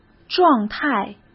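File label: "状态", Mandarin Chinese; hsk; chinese-flashcards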